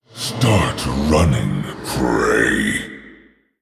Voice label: Male Orc Greetings; Rexxar Deathknight